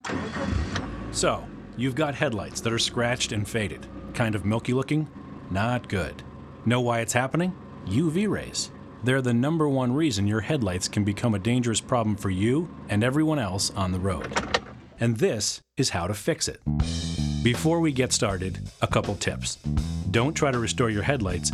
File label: headlights, narration